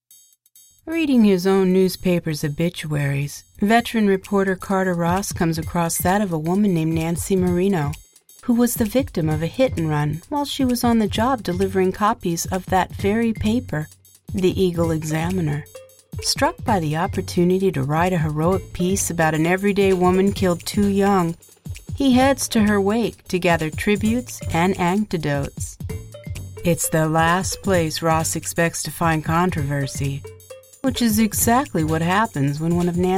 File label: Book Trailer; The Girl Next Door